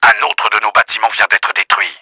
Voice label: BUILDING 2 DESTROYED, french; Battle Engine Aquila; XBOX

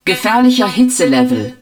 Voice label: HEAT LEVEL CRITICAL, german

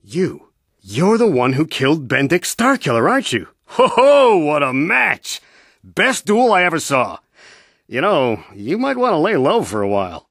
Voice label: male

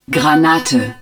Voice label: hud grenade launcher, german

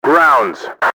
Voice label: grounds, enemy english team orders; XBOX

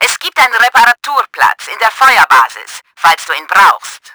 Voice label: LOW HEALTH, german; Battle Engine Aquila

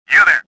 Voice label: suspicious; XBOX

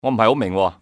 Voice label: dont understand, male; XBOX; civ

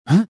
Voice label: pushed, rebel

